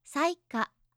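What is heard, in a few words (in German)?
"saika", japanische Mädchennamen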